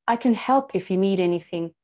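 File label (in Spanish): Voice Acting es F, Spanish, female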